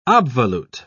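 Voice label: "obvolute", British English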